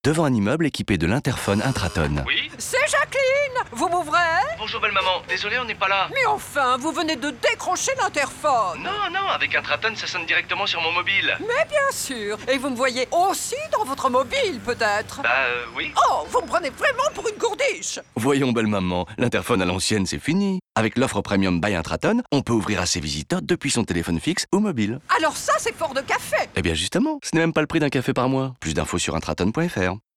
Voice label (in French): Pub Radio Voix transformee Folle Dingue; Intratone